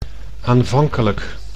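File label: "aanvankelijk", Dutch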